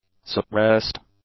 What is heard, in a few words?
"suppressed", English; adjectives